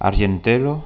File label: "argentel", Occitan